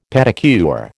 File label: "pedicure", American